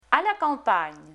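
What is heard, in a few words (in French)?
à la campagne, French; Phonetique; Voyelles; Lingvo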